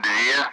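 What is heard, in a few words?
Speech, Russian; Allied Flight; Digits; DCS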